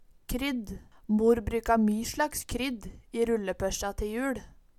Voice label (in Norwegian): krydd, Numedalsmål